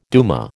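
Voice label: "duma", American English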